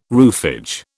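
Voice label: "roofage", British English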